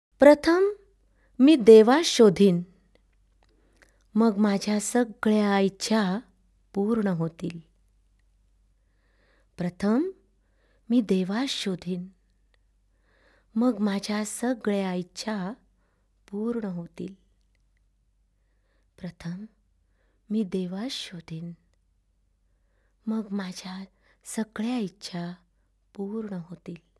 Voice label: Spiritual Affirmations, Marathi; Silence; Love Peace Joy; Prayer & Light Meditation for World Peace